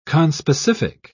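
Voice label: "conspecific", English, British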